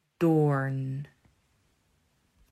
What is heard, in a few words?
"Doorn", Dutch